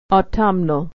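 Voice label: "au tum nal", American English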